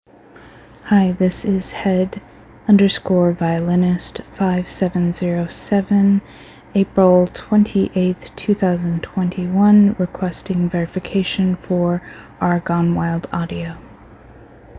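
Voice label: Female Verification Request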